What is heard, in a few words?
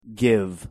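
"give", English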